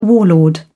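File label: "warlord", English, British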